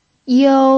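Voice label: "you1", Mandarin Chinese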